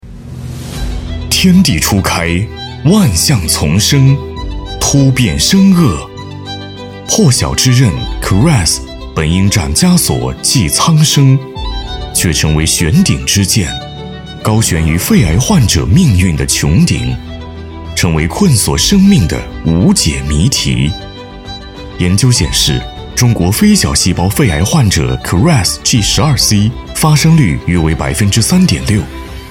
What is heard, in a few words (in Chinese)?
男 宣传 浑厚大气